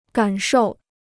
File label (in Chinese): "感受", Chinese